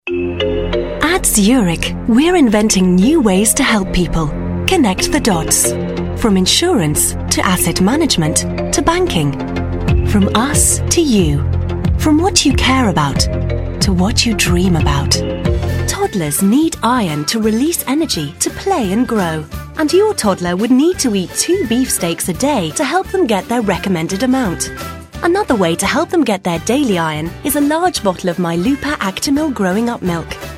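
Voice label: UK female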